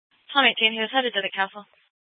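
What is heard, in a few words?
Police Department Dispatch, scanner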